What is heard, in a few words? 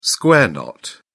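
"square knot", British English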